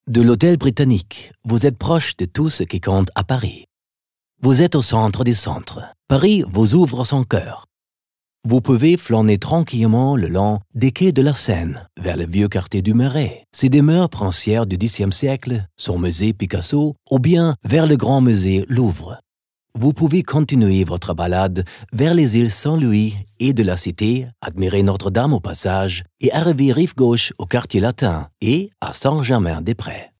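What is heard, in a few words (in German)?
French, Kommentar Neutral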